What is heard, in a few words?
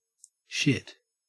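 "shit", Australian English; pronunciations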